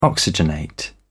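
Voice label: "oxygenate", British English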